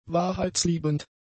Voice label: "wahrheitsliebend", German; adjectives